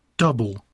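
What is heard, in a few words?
"double", English; rythme; poitiers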